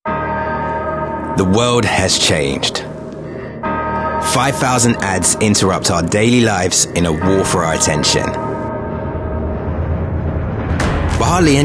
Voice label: Corporate

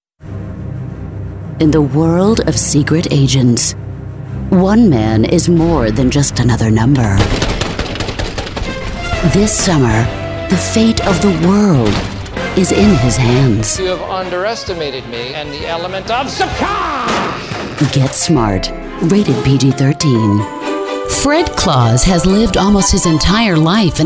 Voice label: Movie Trailer

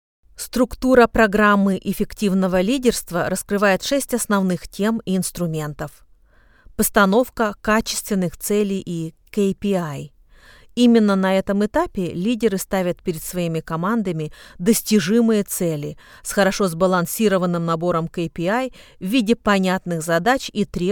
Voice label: RUSSIAN Corporate

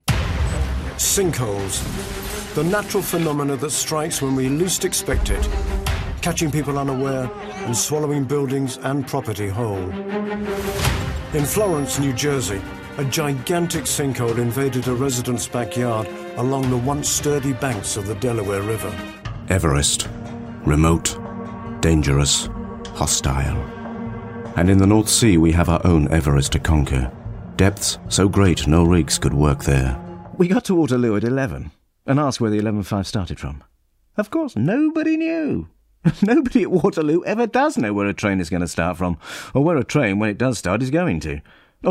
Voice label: Narration

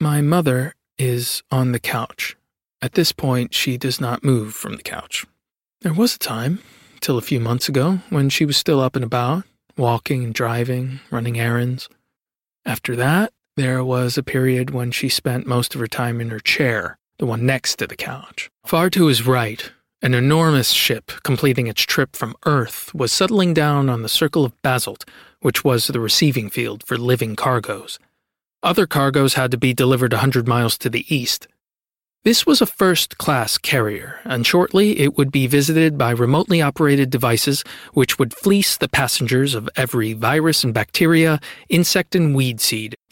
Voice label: Narration

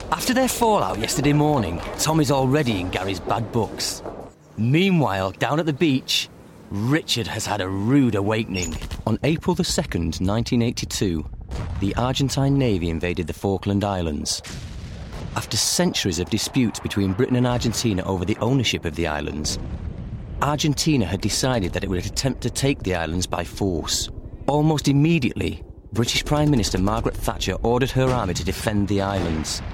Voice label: Narration

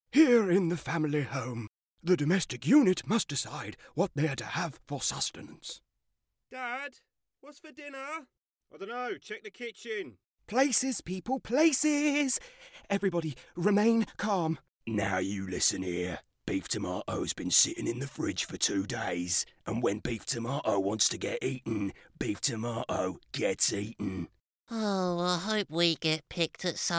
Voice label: Character

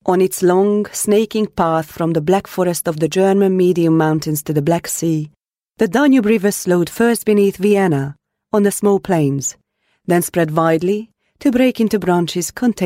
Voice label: ENG

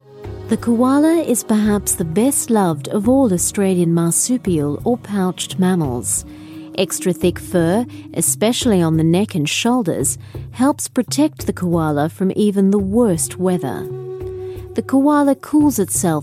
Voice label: Narration

